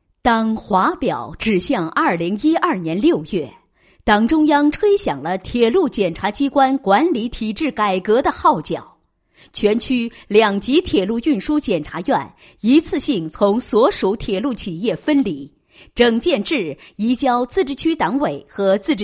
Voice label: 女 专题片; 呼铁检察分院两房专题片

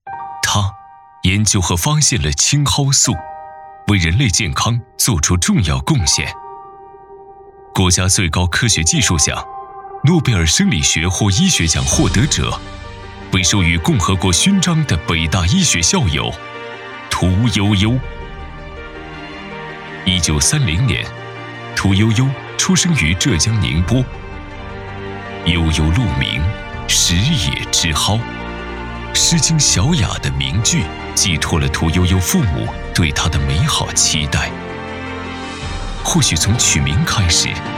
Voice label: 男 现场VCR; 北京大学屠呦呦医学奖启动会

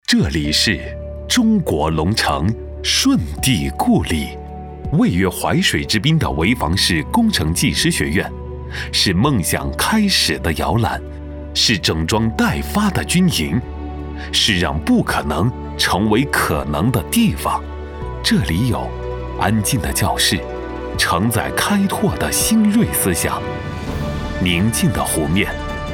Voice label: 男,自信大气 大学宣传

